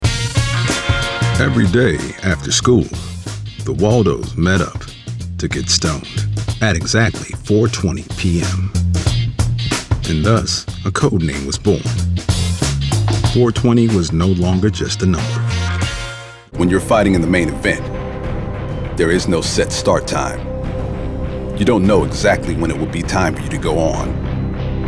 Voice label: narration men

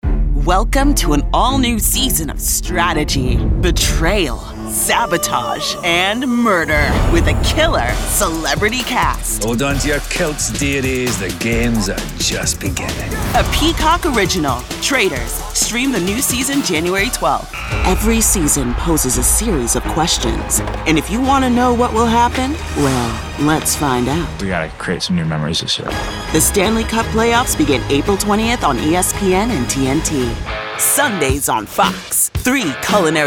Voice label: promo women

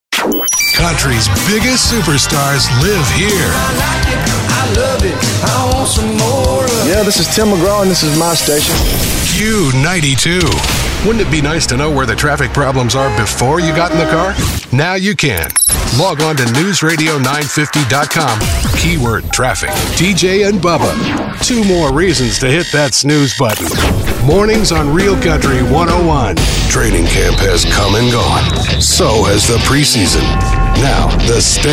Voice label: radio; imaging

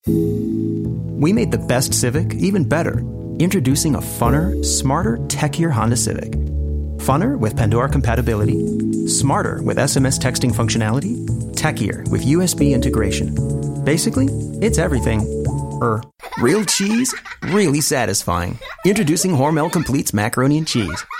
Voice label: commercial men

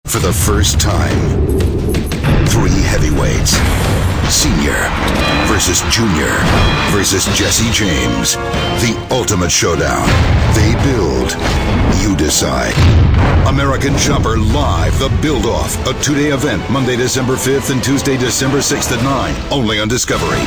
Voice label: promo ent; men

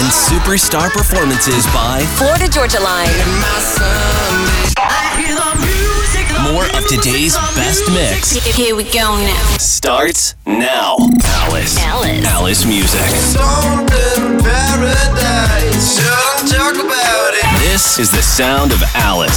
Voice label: radio hot ac; radio imaging

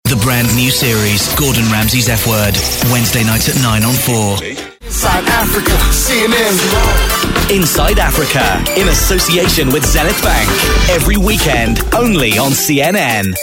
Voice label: promo, men

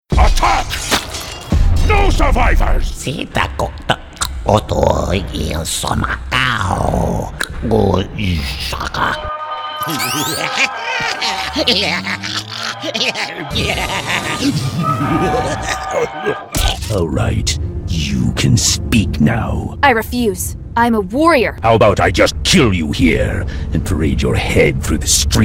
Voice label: animation men video game

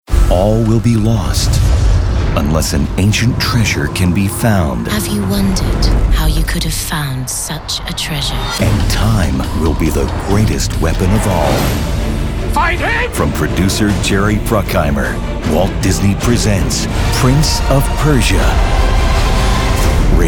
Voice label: trailer drama

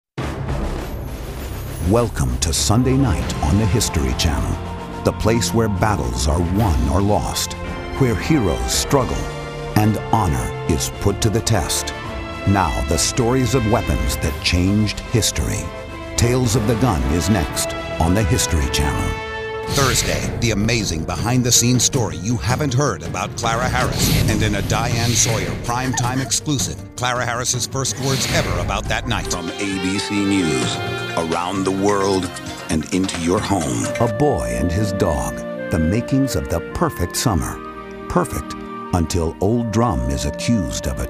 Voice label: promo men

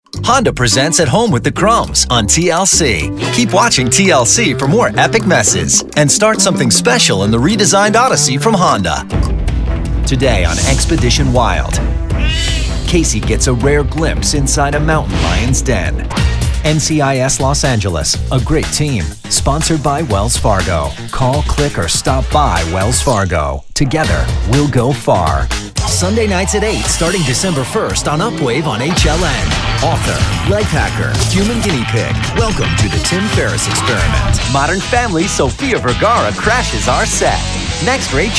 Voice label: promo, men

